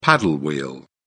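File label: "paddle wheel", British English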